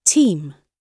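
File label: "team", British English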